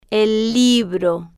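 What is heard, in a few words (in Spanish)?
"el libro", Spanish, female, slow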